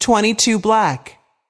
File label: voice over, roulette; frenchroulette3